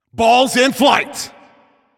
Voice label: Shot On Goal, Announcer

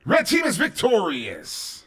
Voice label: Wins Red Team, announcer

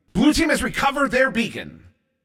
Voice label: Recovered Blue, Announcer

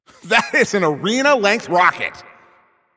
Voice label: Announcer Long Hit To Other Side

